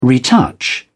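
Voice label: "retouch", British English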